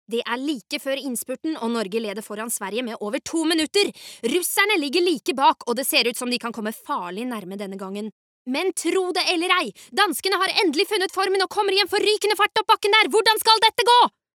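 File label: Norwegian dub commentator, norsk kvinne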